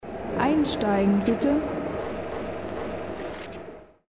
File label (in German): ansage einsteigen bitte; linie1